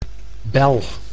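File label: "bel", Dutch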